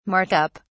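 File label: "Mark up", British English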